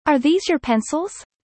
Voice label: fast; studying abroad